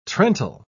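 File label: "trental", British English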